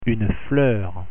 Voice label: "fleur", French pronunciation